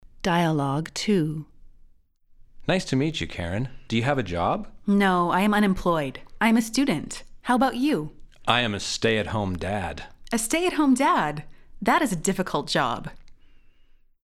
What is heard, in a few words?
Dialogue natural